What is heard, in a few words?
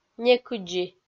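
"некыдзи", Komi-Zyrian, female; kpv audio dict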